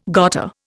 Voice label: "gotta", Indian